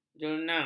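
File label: "jernang", Malay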